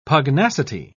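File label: "pugnacity", British English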